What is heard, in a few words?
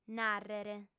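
"nàrrere", female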